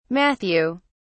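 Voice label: "matthew", British English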